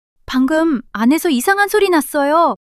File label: surprise, monologue